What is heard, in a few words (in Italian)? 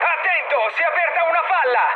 damaged water, mood high, speech it; War Thunder